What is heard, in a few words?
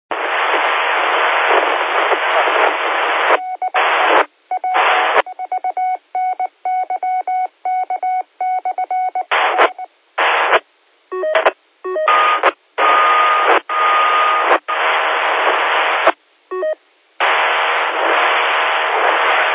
qso rec repeater logic